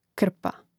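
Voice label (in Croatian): "krpa", Croatian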